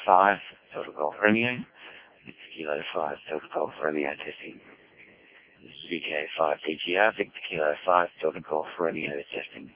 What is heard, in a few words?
decoded speech, parrot